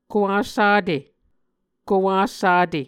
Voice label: "kowassate", Muscogee

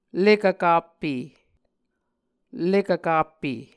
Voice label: "lekvkappe", Muscogee

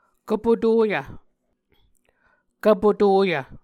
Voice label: "kvpotoyv", Muscogee

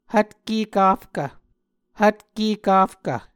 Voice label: "hvtke kafkv", Muscogee

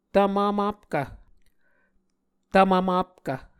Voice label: "tvmvmapkv", Muscogee

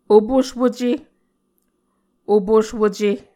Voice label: "opuswuce", Muscogee

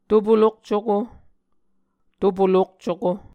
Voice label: "to polok cuko", Muscogee

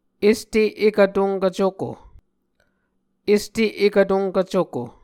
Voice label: "este ekv tonkv cuko", Muscogee; est-ekv-tonkv-cuko; Mvskoke Words with Voicing Consonants; A Dictionary of Creek/Muskogee; SIL International

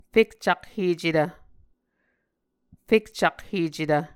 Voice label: "fekcakhecetv", muscogee

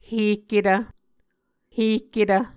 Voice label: "hehketv", muscogee

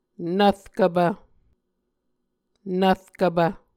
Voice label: "nvrkvpv", Muscogee

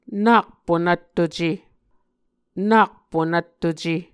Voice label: "nak punvttuce", Muscogee

